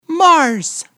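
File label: "Mars", English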